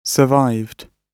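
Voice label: "survived", British English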